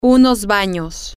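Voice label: some bathrooms, Spanish, female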